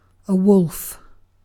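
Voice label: "wolf", British English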